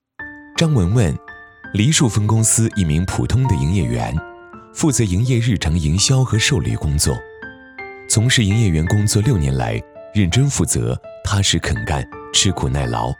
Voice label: 男 专题 讲述感

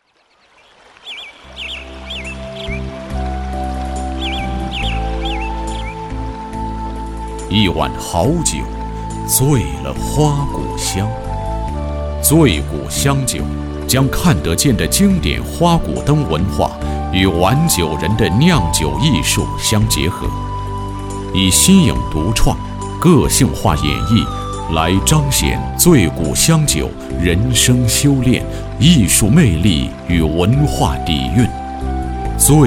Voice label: 男 酒类专题

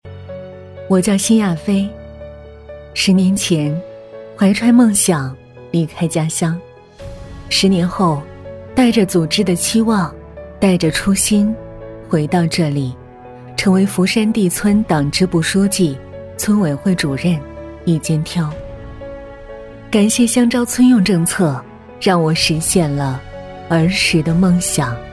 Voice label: 女 专题

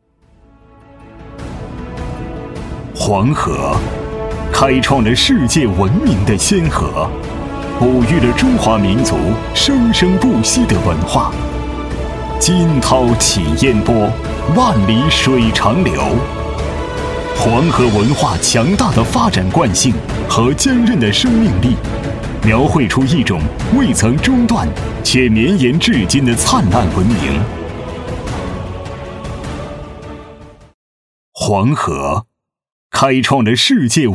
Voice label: 男 大气浑厚 汇报; 黄河治理汇报

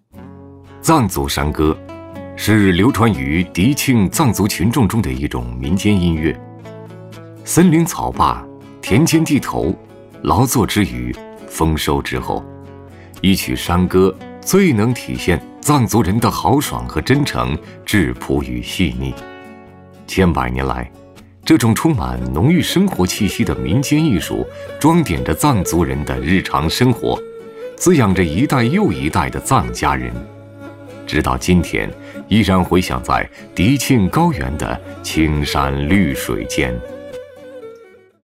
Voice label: 男 宣传片 民族山歌

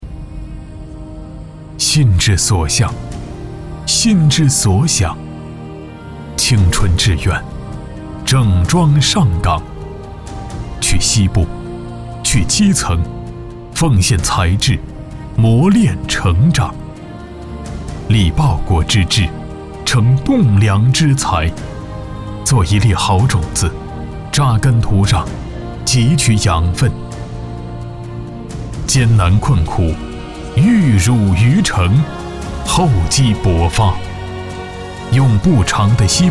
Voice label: 男 宣传片 大气 抒情 青春

